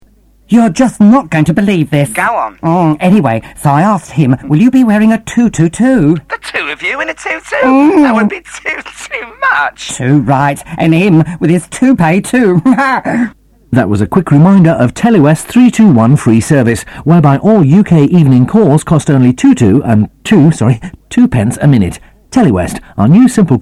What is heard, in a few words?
radio ad